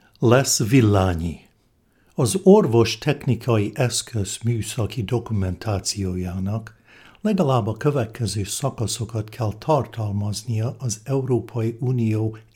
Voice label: Hungarian Medical Device Description